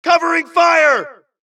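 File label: suppress, dialogue, Allies; mohaa; Mission 2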